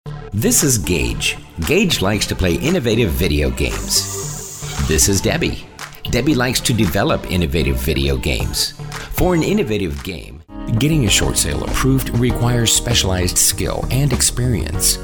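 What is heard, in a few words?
voice over montage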